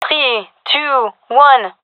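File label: countdown, female; vo; gendarmerie fem epic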